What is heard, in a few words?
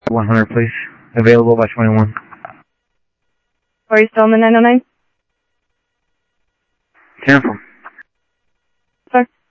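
Sheriff East County, scanner; SoutheastCenMesa; MCSO - EAST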